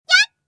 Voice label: girl; battle